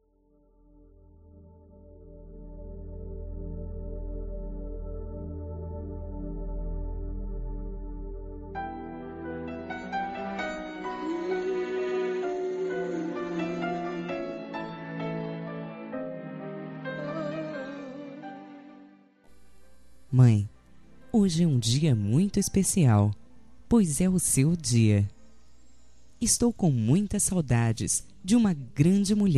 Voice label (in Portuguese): dia mãe distante, voz feminina; dia das maes para minha mae voz feminina distante